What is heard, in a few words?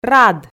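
"рад", Komi, female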